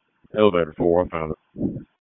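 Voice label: FIRE Operations, p25; FIRE OPS 1; wake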